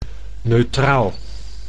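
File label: "neutraal", Dutch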